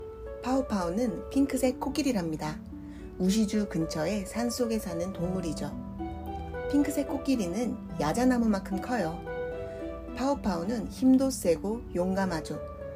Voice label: 韓語女聲 korean Female Voice

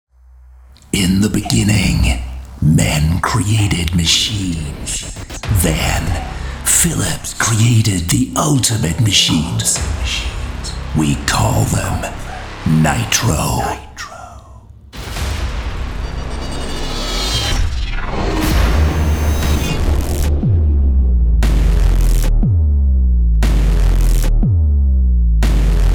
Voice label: 英語男聲 音響廣告; Nitro

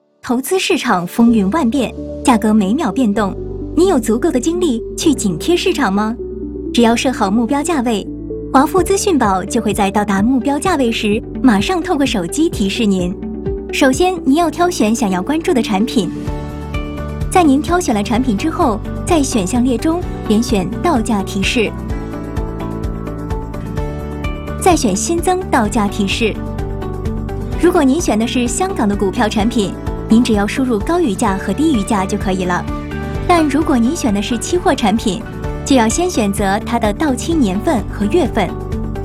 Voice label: 普通話女聲

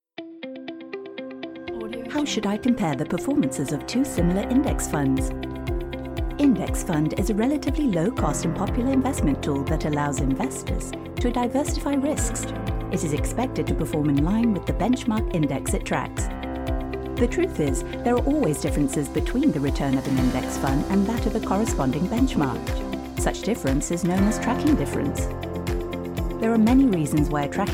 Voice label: 英語女聲; US; HSBC

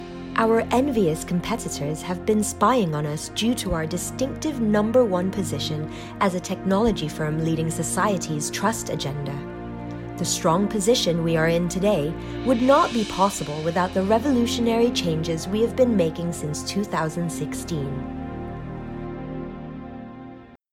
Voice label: 英語女聲 mix; US

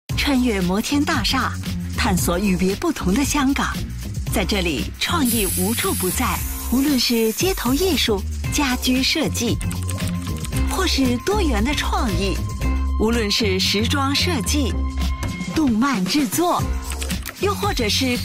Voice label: 普通話女聲; BrandHK

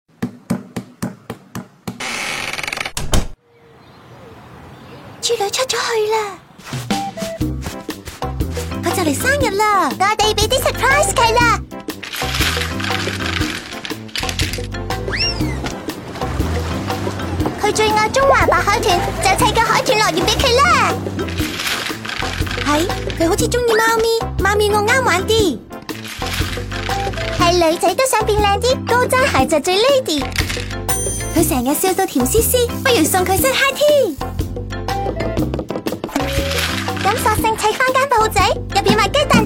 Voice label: 女童; LEGO - 【Brick出情懷】你係珠女的話揀邊樣？

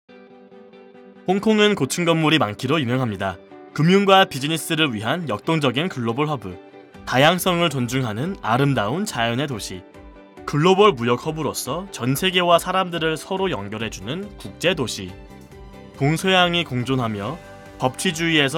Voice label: 韓語男聲, Korean; BrandHK; TallStory

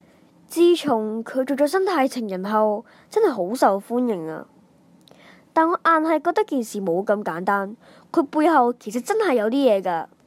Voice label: 男童; 生態情人

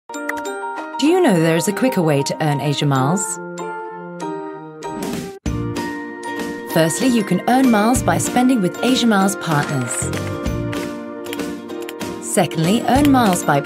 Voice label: 英語女聲; Asia Miles Pay Once Earn Twice

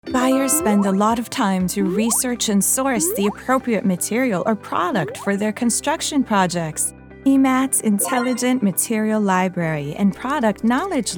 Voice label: 英語女聲; MattexContentStory

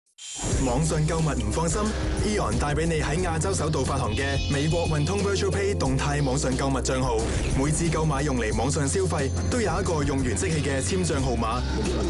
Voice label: 粵語男聲; AEON

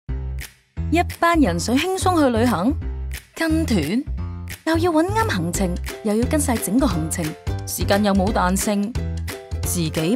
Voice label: 粵語女聲; Club Travel

